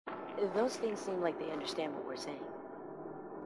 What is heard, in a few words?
those things seem, marine tough